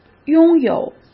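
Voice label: "拥有" Mandarin Chinese; chinese flashcards; hsk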